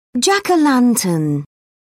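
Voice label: "jack-o-lantern", British English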